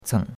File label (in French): "ceng3", chinois; Cours-de-Chinois